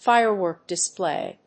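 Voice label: "firework display", English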